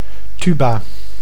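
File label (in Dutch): "tuba", Dutch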